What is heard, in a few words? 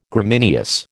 "Gramineous", American